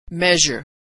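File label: "measure", American English pronunciation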